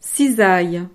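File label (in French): "cisaille", French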